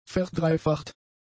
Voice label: "verdreifacht", German; adjectives